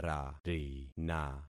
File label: "rarina", concat syllables; Affixing experiment